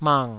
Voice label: "mang3", Taiwanese